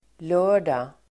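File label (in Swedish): "lördag", swedish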